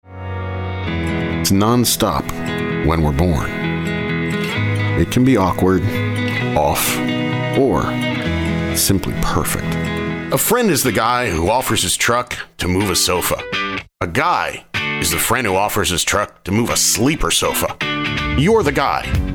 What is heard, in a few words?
Commercial